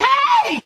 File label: "hey", voice